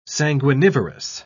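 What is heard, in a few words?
"sanguinivorous", British English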